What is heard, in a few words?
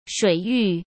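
"水域", Mandarin Chinese; chinese flashcards; hsk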